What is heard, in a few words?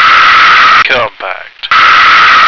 "compact", American